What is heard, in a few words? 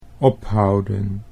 "ophouden", Dutch